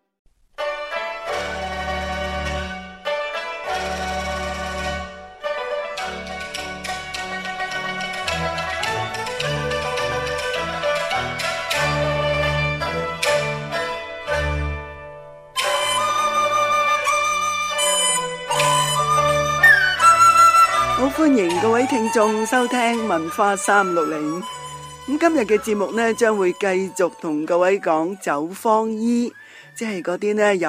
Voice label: cantonese; 【文化360】《走方醫的故事》(三); 中國古代在民間行醫的醫生，以庶民百姓為對象，遊走四鄉，古時稱為「走方醫」的事跡和故事。今集會講到一部關於走方醫醫術和驗方的專著《串雅》。; SBS Chinese

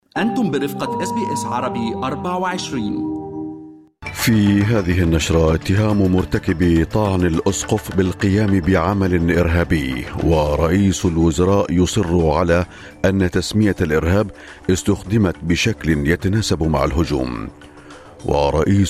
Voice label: arabic, newsflash; morning news

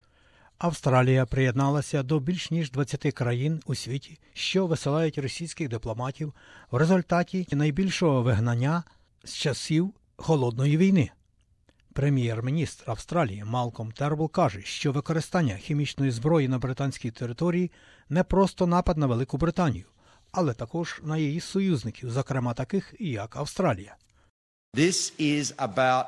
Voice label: ukrainian; SBS Ukrainian; THE ATTACK IN THE ENGLISH CITY WAS PART OF AN ONGOING ATTACK ON DEMOCRACY

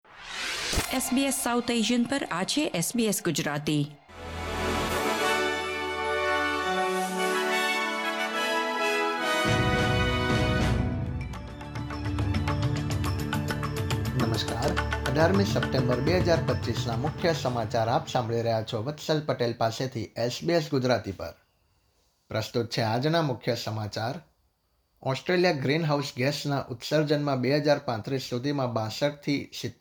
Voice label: gujarati news bulletin; Australian News Update: 18 September 2025; SBS Gujarati

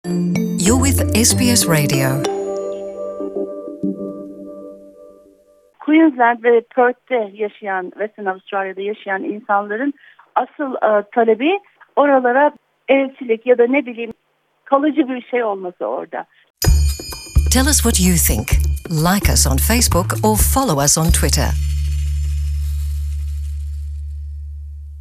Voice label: turkish; SBS Turkish; Brisbane ve Perth'den Türk konsolosluğu açılması talebi

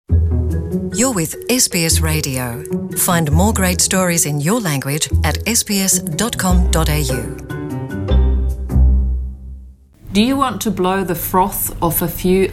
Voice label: serbian; SBS Serbian; Досељеници којима енглески није матерњи брже савладају аустралијски сленг од оних којима јесте

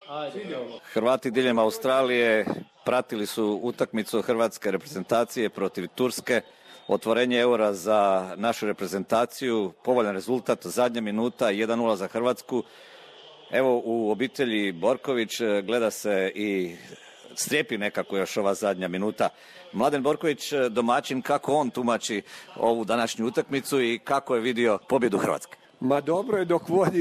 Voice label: croatian

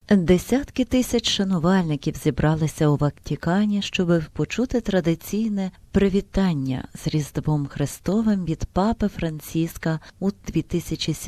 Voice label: ukrainian; XMAS MESSAGES; SBS Ukrainian

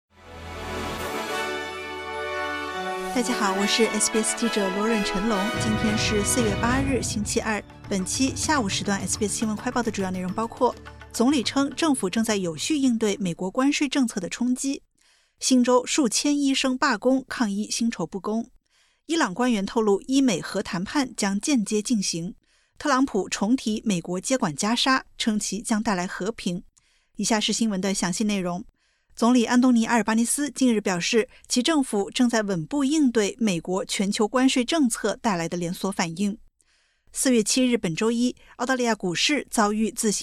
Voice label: mandarin news flash; 总理称政府正有序应对美关税政策冲击; SBS Chinese; SBS新闻快报

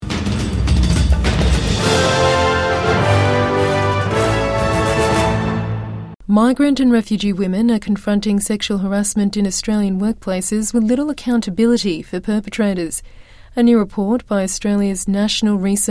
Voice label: english; Report looks at workplace sexual harassment experienced by migrant, refugee women; SBS News

